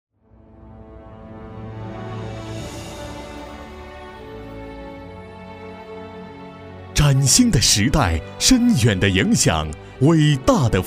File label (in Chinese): 电台 节目预告; 中国教育电视台